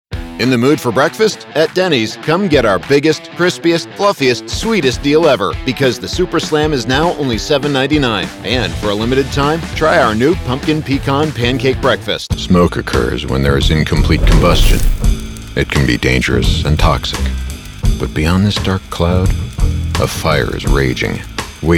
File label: Commercial